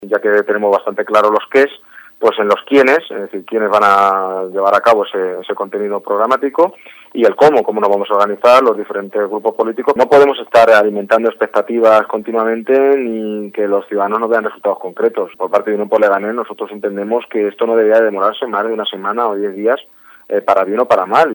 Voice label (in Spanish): noticias